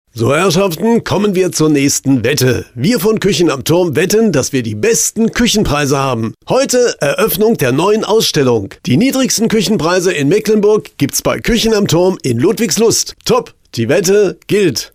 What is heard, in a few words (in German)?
Funkspot; Gottschalk Küchen am Turm